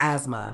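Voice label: "asthma", British English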